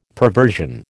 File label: "perversion", American